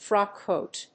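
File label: "frock coat", English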